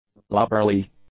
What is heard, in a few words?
"lubberly", English; adjectives